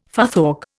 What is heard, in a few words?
"futhorc", Indian